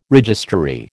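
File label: "registrary", American English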